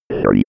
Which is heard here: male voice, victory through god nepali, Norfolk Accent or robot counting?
robot counting